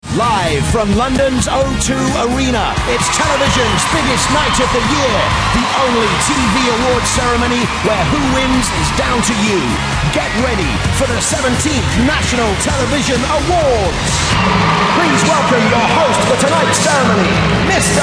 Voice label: Voice Of God